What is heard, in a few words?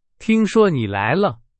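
Chinese, example sentence; hsk2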